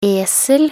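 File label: "esel", Norwegian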